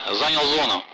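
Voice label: Speech Sound Russian Common Allied Flight Messages; on station; DCS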